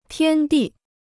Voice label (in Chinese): "天地", Chinese